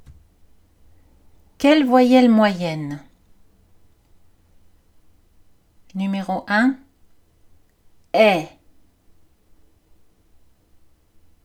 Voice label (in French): voyelles moyennes; la danse